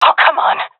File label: scared, seal fem; vo; Counter Strike Model Pack; CS:GO